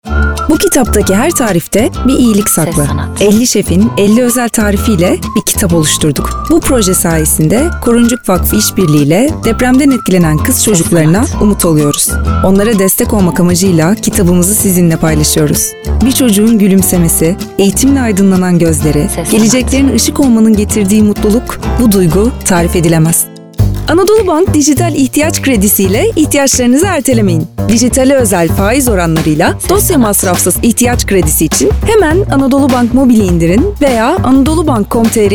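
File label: Turkish, female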